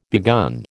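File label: "begun", American English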